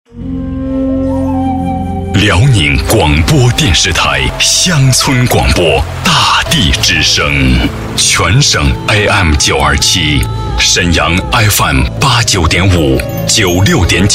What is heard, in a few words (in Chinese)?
男 片花